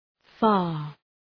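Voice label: "far", English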